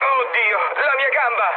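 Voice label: Italian damaged pilot mood low, speech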